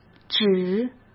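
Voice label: "指", Mandarin Chinese; chinese flashcards; hsk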